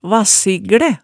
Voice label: "vassiglæ", hemsedalsmaalet tale

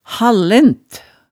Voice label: "hallent", hemsedalsmaalet

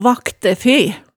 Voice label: "vaktæfe", hemsedalsmaalet tale

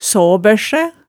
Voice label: "saabørsæ", hemsedalsmaalet

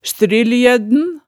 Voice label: "strylljødn", hemsedalsmaalet

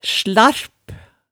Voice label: "slarp", hemsedalsmaalet

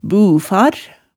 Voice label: "bufar", hemsedalsmaalet tale

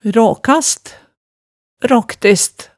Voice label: "raakast", Hemsedal

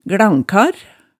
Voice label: "glankar", hemsedalsmaalet

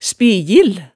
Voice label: "spijil", hemsedalsmaalet

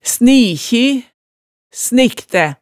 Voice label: "snikji", hemsedalsmaalet

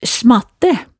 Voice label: "smattæ", hemsedalsmaalet, tale

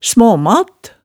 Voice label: "smaamat", hemsedalsmaalet

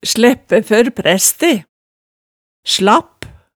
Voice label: "slæppæ før præste", hemsedalsmaalet, tale

